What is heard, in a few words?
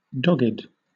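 "dogged", British English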